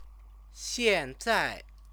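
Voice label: "xianzai", Chinese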